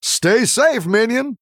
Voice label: Male Human After Selling

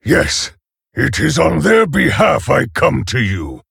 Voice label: Male Orc Ogre

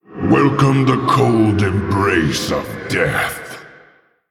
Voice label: Male Orc Greetings

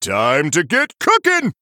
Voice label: Male Human Start

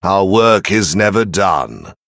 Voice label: Male Venthyr TRIGGER Atonement

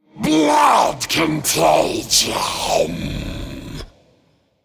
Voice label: Male Old God Creature Play

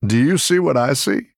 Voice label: Male Human Triple

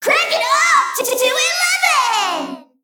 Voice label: Female Mech Play

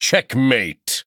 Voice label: Male Chess Piece Chess Emote Well Played

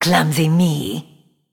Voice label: Female Nightborne Attack

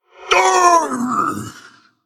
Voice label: Male Vargul Death

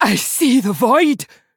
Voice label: Female Dwarf Attack

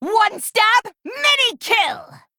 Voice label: Female Kobold Play

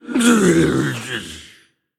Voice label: Male Troll Undead Death

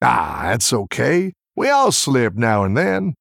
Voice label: Male Human Combat Loss

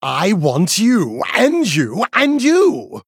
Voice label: Male Night Elf Play

